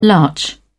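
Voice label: "larch", British English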